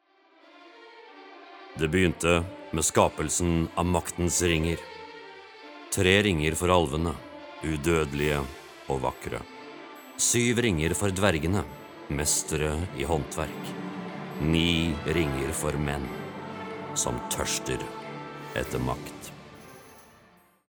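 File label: Norwegian movie trailer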